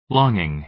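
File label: "longing", American English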